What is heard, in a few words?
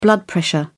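"blood pressure", British English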